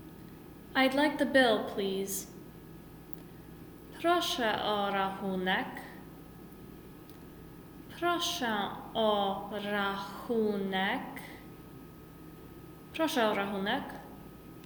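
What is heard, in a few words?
I'd like the bill please, Polish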